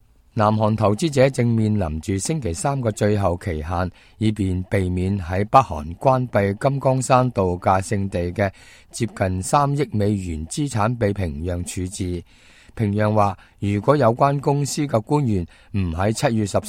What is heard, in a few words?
cantonese, news